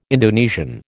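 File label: "Indonesian", American English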